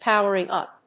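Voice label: "powering up", English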